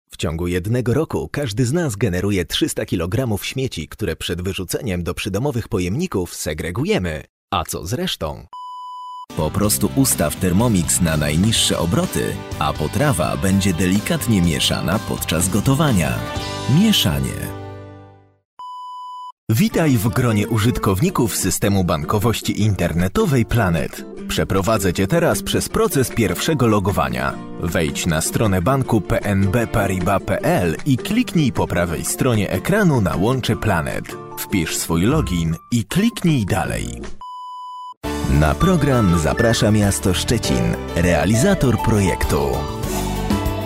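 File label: voiceover Polish; SHOWREEL; PL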